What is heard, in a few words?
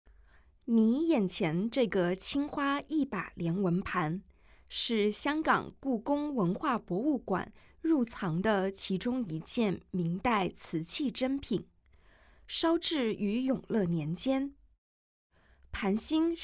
Mandarin; audio guide; g3-ming-dynasty-ceramic-treasures-from-the-palace-museum